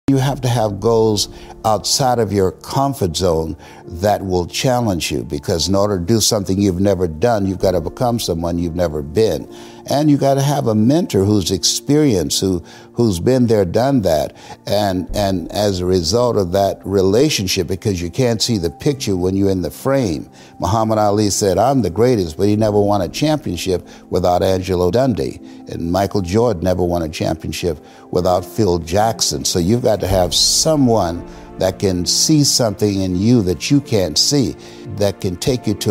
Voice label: Inspirational Speech; You Have To Have Goals Les Brown